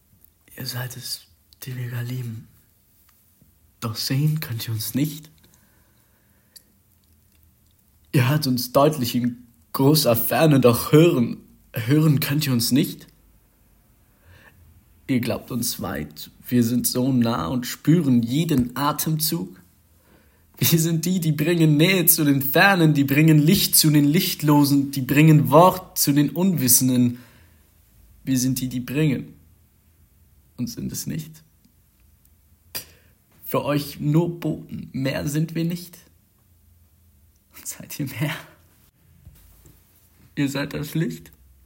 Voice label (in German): traurig, jungen